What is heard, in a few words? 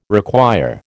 "require", American